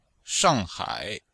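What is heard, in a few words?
"shang hai", Chinese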